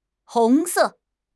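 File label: "hong2 se4", Chinese; HSK; HSK2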